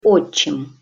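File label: "otcim", Russian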